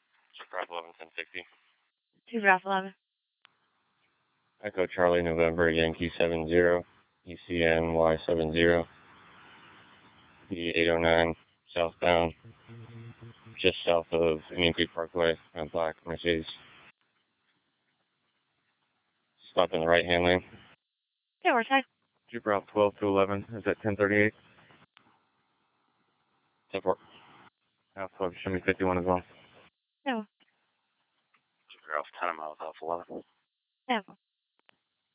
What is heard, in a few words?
radio traffic